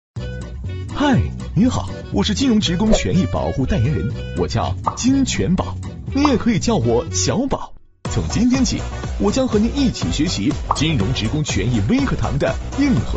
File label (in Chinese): 男国 宣传片 病毒; 金钱宝